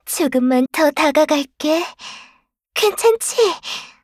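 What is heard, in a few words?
kill Player, Voice Line, Korean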